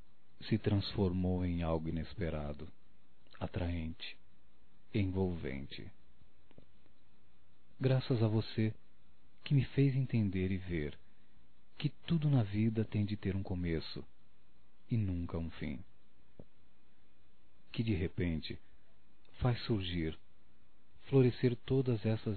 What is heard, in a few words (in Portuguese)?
Amor Esquecido Masculino; Telemensagens 2017